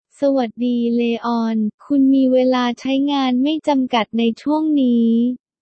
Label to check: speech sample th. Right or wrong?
Right